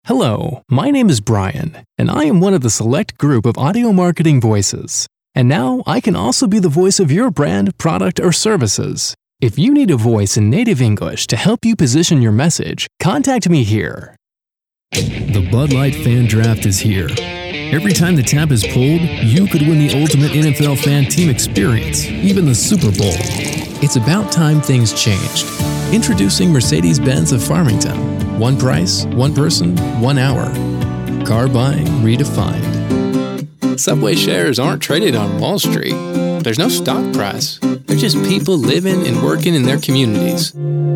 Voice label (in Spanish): Locutor americano; eeuu